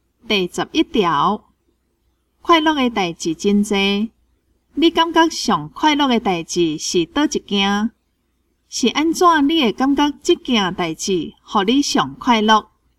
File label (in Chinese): 口語表達, 閩南語; 閩南語語言能力認證; 金安咱來考C